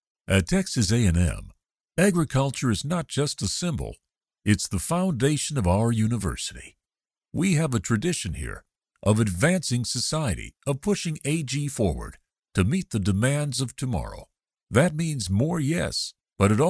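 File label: American English, male, voice over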